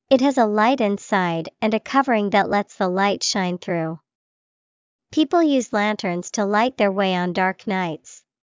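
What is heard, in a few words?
lantern, English vocabulary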